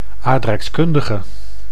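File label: "aardrijkskundige", Dutch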